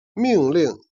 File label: "jian", Chinese